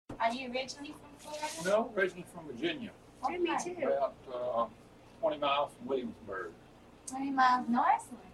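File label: USA Virginia Costal Southern Male 60s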